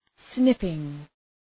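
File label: "snipping", English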